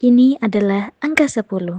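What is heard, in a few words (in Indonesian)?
"Sepuluh", Indonesian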